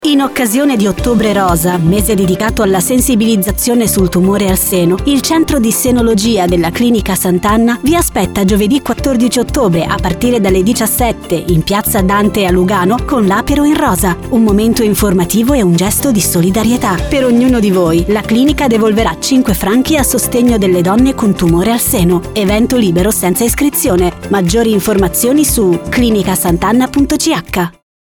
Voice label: spot; apero clinica santanna